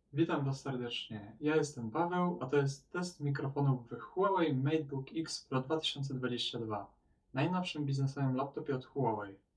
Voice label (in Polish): Test mikrofonow Huawei MateBook X Pro z odleglosci 25 metra